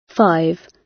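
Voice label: "five", British English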